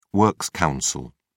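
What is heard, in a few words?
"works council", British English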